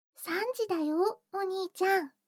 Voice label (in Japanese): 時報 3時